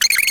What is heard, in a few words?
Hurt; SNES; Bishoujo Senshi Sailormoon R; VFX; Sailormercury